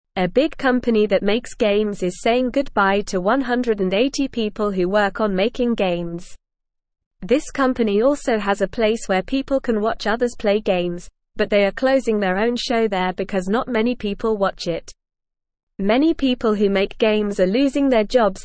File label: English Newsroom Beginner NORMAL Reading; Big Game Company Says Goodbye to Many Workers